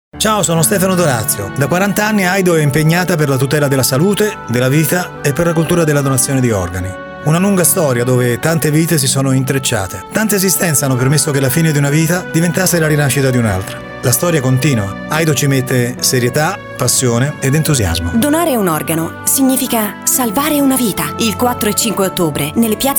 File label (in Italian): Pubblicita progresso; Aido 2014